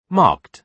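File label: "marked", British English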